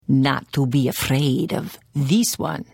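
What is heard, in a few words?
Hello, Khajiit female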